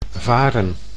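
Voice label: "varen", Dutch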